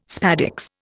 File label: "spadix", Indian